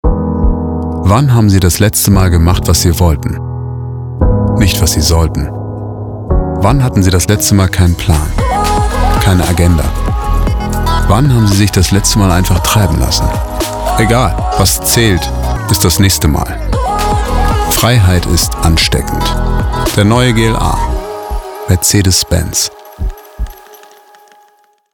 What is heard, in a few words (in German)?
Hörproben Auto Werbung Tief Gesetzt